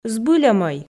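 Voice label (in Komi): збыль ӧмӧй, Komi, female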